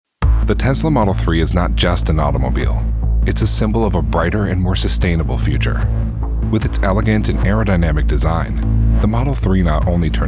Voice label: general narration